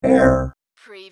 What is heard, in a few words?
Robot voice - error; lynne publishing